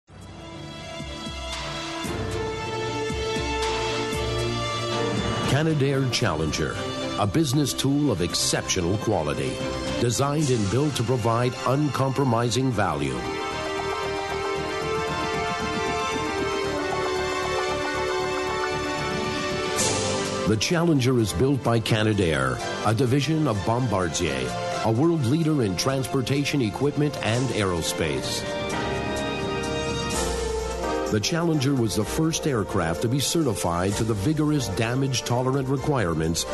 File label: Narration Male Corporate